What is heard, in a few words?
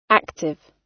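"active", British English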